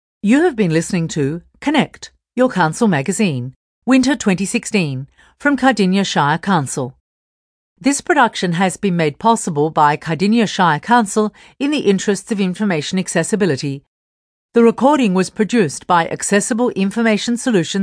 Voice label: closing announcement; connect winter 2016